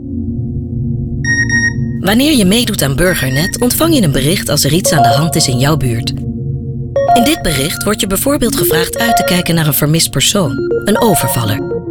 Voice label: radio commercial, nl; burgernet; CGI